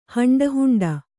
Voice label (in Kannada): "ಹಂಡಹುಂಡ", kannada